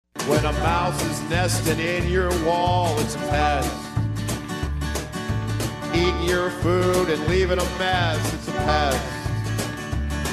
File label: radio spot rodent